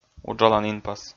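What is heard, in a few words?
"уджалан инпас", Komi, male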